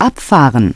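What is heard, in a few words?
"abfahren", German; Navigation; DISTEFORA Navigation; Mobile Navigator Notebook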